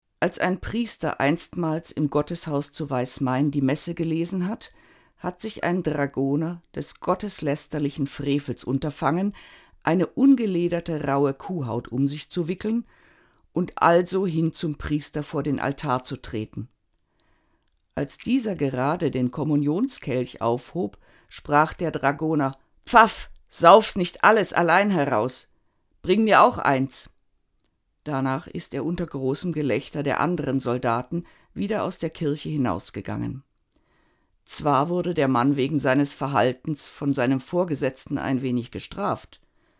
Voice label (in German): Deutsch